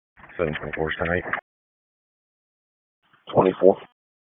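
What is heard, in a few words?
Dispatch, p25; WSO DISP 1; wake p25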